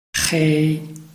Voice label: "hei1", Chinese

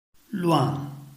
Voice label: "luan4", Chinese

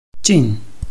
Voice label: "jin4", Chinese

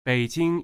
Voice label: "bei3jing1", Chinese

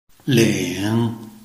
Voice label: "ling3", Chinese

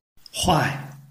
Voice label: "huai4", Chinese

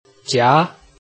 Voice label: "jia2", Chinese

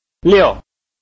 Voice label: "liu4", Chinese

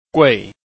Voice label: "gui4", Chinese

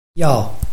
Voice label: "yao4", Chinese

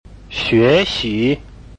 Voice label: "xue2xi2", Chinese

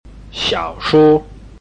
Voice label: "xiao3shu1", Chinese

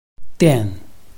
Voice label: "dian4", Chinese

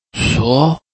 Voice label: "suo2", Chinese

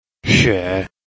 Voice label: "xue3", Chinese

